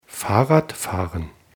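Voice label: "fahrradfahren", German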